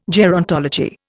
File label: "gerontology", Indian